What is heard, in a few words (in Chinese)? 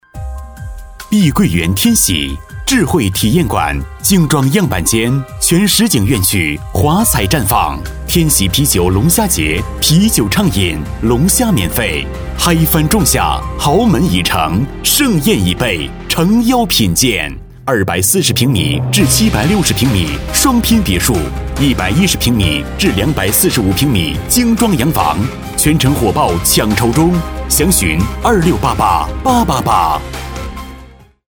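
【广告】男-磁性激情; 碧桂园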